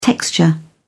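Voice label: "texture", English, British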